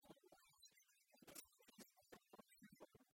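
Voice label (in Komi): пу увйысь жбыркнитіс-лэбзис гӧрд морӧса жонь, Komi, female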